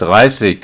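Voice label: "dreißig", German; ZAEHLEN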